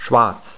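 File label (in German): "Schwarz", German; Skat 3.0 Demo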